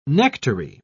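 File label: "nectary", British English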